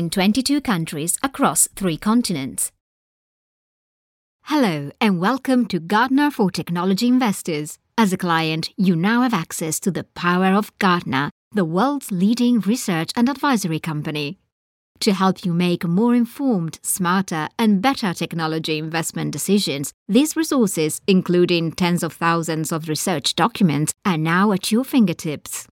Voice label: italian female english with italian accent